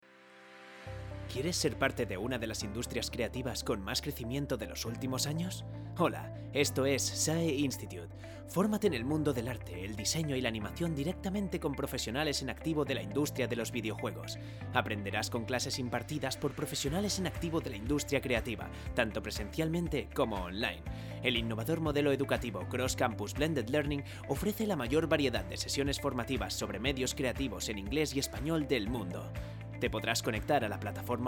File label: male spanish tv comercial; ideasonora